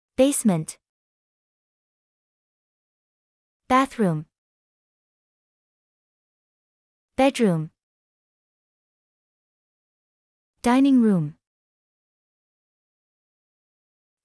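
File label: American; apartments houses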